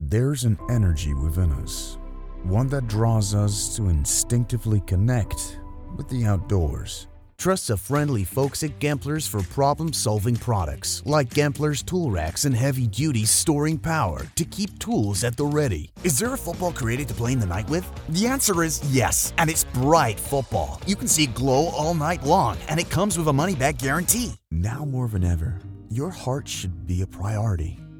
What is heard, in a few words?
North American Commercial Demo